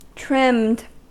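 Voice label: "trimmed", American English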